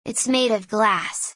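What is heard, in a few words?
"It's made of glass", English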